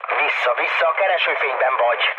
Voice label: searchlite, mood low, speech hun; War Thunder